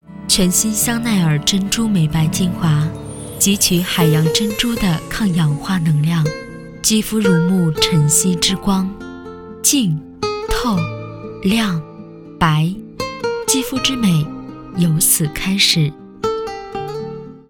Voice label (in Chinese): 广告高端质感化妆品